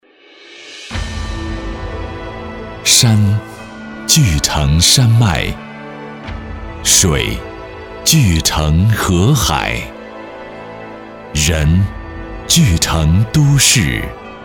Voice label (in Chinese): 男; 阜新银行合成